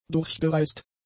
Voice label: "durchgereist", German; adjectives

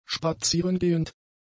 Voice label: "spazierengehend", german; adjectives

